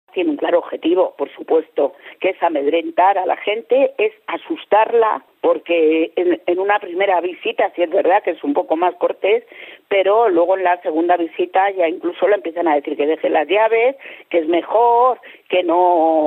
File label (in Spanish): noticias